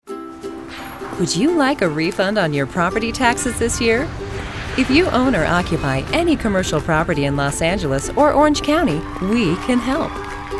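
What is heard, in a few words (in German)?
US English Fremdtake Freundlich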